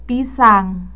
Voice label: "pisang", indonesian